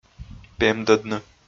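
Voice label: "пемдӧдны", Komi, male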